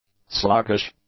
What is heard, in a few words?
"sluggish", English; adjectives